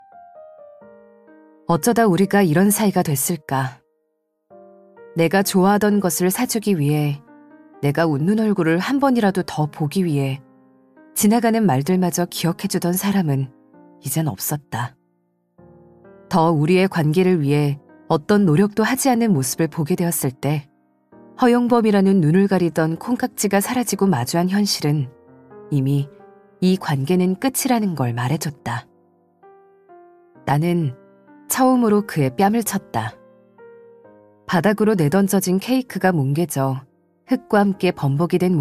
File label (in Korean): 오디오북 차분한